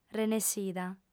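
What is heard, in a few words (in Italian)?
"renessida", lingua sarda, fem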